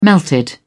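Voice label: "melted", British English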